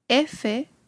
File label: alphabet f